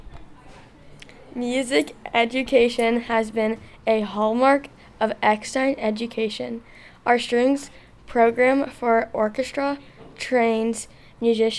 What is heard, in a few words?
Orchestra Narration